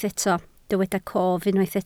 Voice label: "cof", Welsh

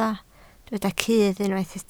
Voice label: "cudd", Welsh

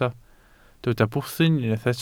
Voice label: "bwthyn", Welsh

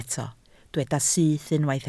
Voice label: "syth", Welsh

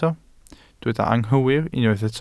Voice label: "anghywir", Welsh

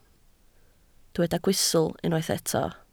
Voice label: "gwystl", Welsh

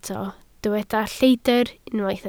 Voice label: "lleidr", Welsh

